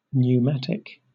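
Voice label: "pneumatic", British English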